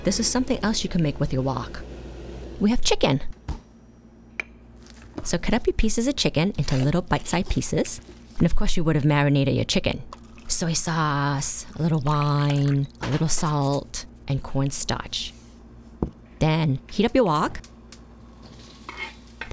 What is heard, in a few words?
narration; wok